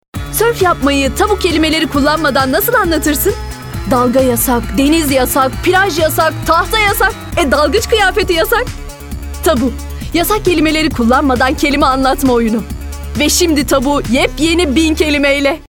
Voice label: neseli esprili

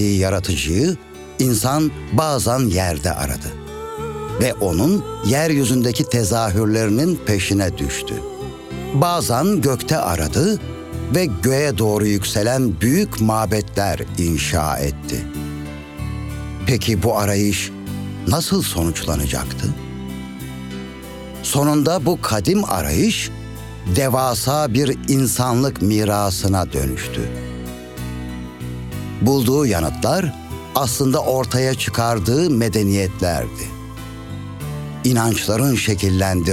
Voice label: belgesel tarihi; seslendirme

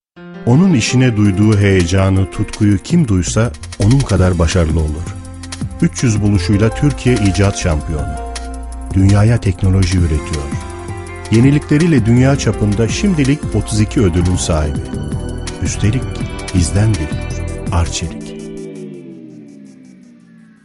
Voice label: sicak samimi

